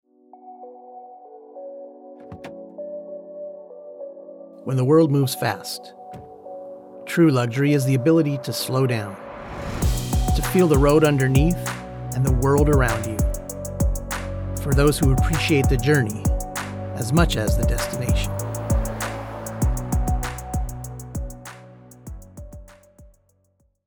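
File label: Commercial, voice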